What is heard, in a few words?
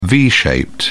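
"v-shaped", British English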